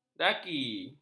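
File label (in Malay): "daki", Malay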